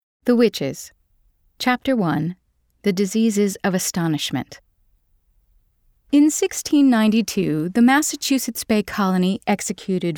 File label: NonFiction Audiobook